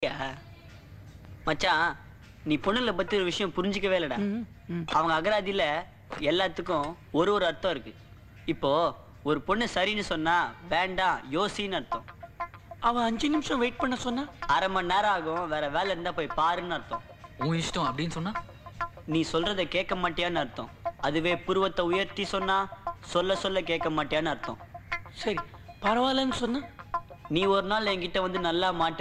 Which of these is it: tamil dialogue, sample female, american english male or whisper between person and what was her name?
tamil dialogue